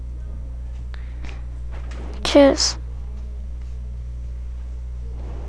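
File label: "pick", spoken word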